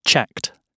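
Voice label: "checked", British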